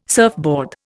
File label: "surfboard", Indian